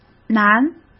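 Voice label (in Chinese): "男", Mandarin Chinese; hsk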